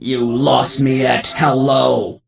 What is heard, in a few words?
NPC Death Knight Female Low Pissed